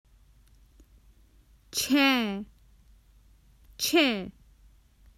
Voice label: Common Hindi Phrases for travel Six

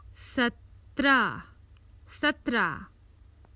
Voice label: Common Hindi Phrases for travel Seventeen

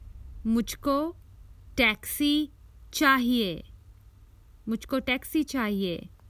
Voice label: Common Hindi Phrases for travel I need a taxi

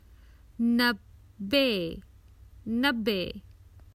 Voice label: Common Hindi Phrases for travel Ninety

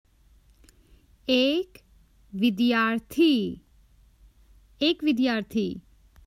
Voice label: Common Hindi Phrases for travel One student

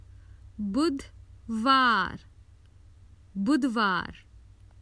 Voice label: Common Hindi Phrases for travel Wednesday

